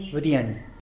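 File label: "bïdien", Tlacochahuaya Zapotec; type of ant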